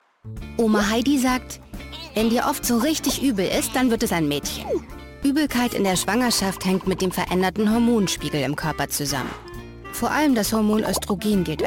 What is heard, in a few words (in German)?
Kommentar lockerd